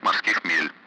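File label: Speech Russian; Allied Flight; DCS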